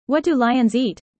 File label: user fast; Animal friends